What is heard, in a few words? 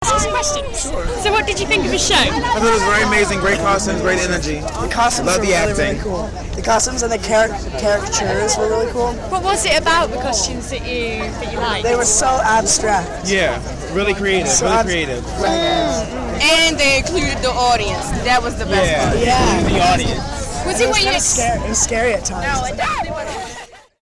american teenagers